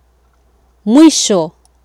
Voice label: "mwisho", Swahili